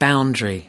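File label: "boundary", US English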